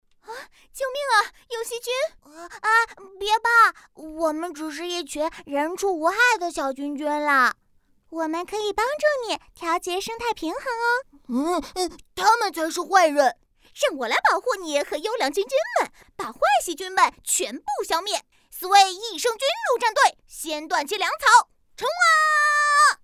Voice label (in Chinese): 多角色; 生物除菌定